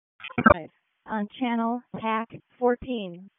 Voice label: EMS ALERT